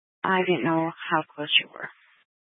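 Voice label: Dispatch, Scanner audio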